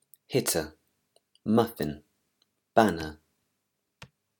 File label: english pronunciation rules